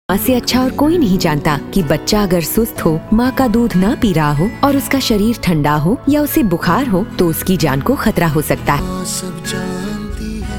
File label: Seek Treatment Hindi